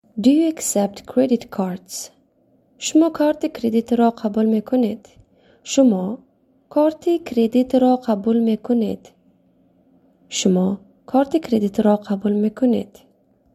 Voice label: Do you accept credit cards, tajik

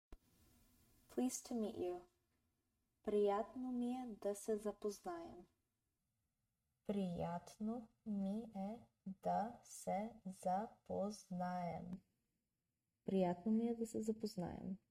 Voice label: Pleased to meet you, bulgarian; the talking bulgarian phrasebook

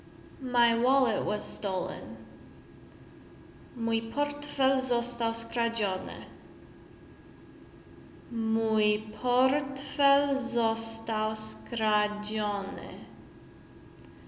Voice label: My wallet was stolen, Polish; the talking polish phrasebook

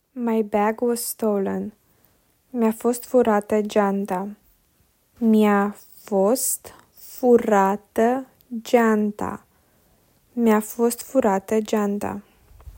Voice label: Romanian My bag was stolen; talking romanian phrasebook

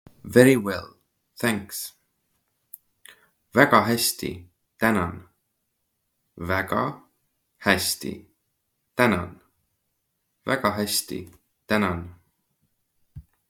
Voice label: Very well thanks, estonian; the talking estonian phrasebook

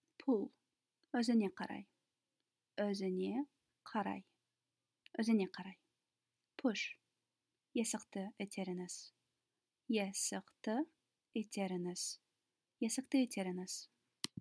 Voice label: Kazakh Pull push, phrasebooks

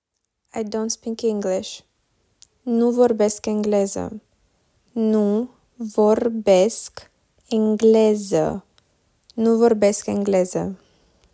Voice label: "I don't speak English", Romanian

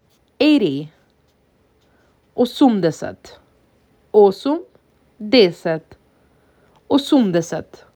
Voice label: MAC 6, macedonian phrasebook

